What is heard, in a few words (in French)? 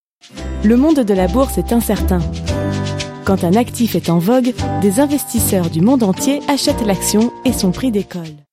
Film Institutionnel Commercial